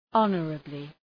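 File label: "honorably", English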